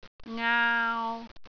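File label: "aau3", Cantonese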